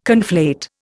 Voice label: "conflate", Indian